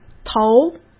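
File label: "头", Mandarin Chinese; hsk; chinese-flashcards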